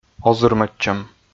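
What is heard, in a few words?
"озырмӧдчӧм", Komi, male; kpv audio dict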